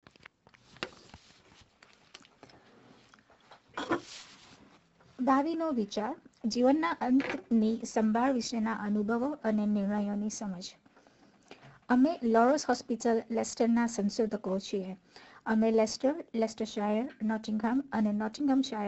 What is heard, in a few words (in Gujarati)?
flyer gujarati